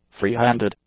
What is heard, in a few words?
"freehanded", English; adjectives